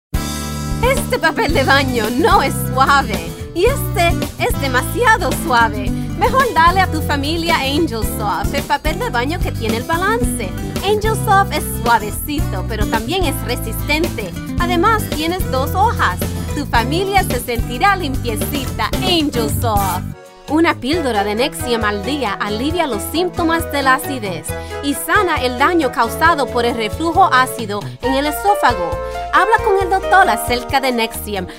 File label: Commercial Spanish